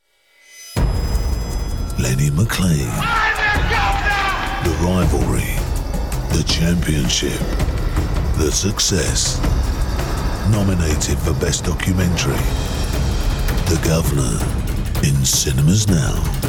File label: Trailer; Lenny Mclean